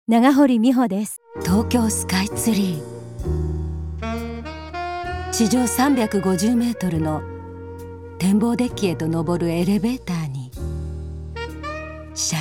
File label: female variety show en